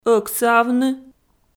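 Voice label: "ӧксыавны", Komi, female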